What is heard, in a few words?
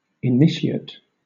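"initiate", British English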